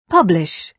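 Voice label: "publish", British English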